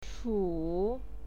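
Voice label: "chu", Mandarin Chinese, third tone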